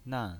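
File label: "nan", pinyin voice, Mandarin Chinese, fourth tone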